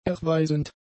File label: "erweisend", German; adjectives